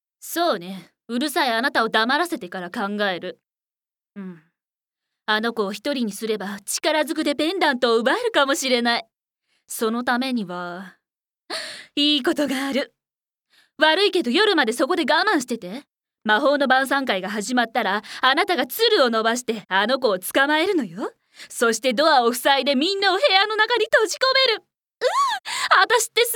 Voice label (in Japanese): キャラ 意地悪な魔女